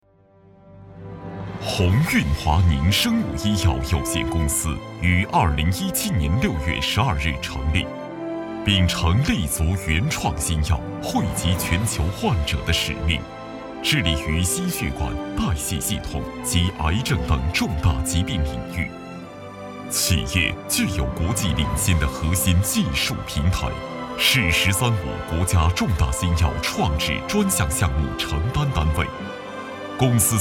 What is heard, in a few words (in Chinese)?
男 企业专题 生物制药